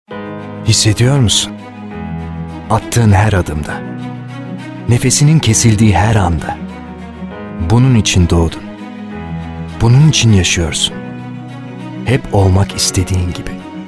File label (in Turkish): reklam motive edici; peugeot